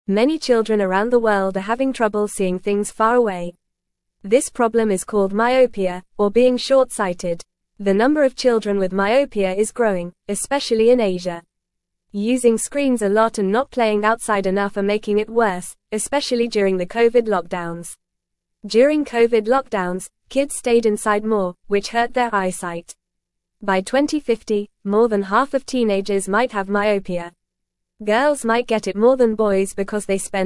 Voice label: English Lower Intermediate FAST Reading; Kids and Screens How to Protect Your Eyes